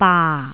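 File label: "baa4", Jyutping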